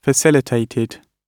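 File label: "facilitated", British English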